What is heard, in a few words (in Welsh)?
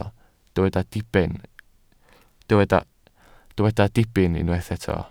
"diben", Welsh